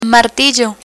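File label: "martillo", Spanish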